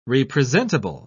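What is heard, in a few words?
"representable", British English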